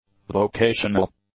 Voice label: "vocational", English; adjectives

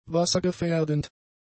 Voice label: "wassergefährdend", German; adjectives

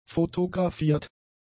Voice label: "fotografiert", German; adjectives

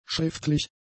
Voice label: "schriftlich", German; adjectives

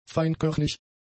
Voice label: "feinkörnig", german; adjectives

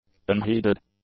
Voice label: "unheeded", english; adjectives

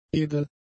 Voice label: "edel", german; adjectives

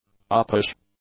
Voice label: "uppish", english; adjectives

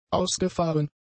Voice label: "ausgefahren", German; adjectives

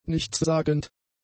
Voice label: "nichtssagend", German; adjectives

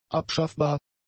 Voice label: "abschaffbar", German; adjectives

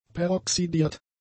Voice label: "peroxidiert", German; adjectives

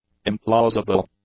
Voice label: "implausible", English

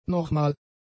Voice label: "normal", German; adjectives

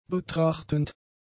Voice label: "betrachtend", German; adjectives

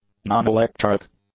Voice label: "nonelectric", english; adjectives

